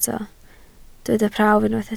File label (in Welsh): "prawf" Welsh